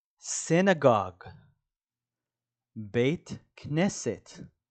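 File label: "synagogue" English, "בית כנסת" Hebrew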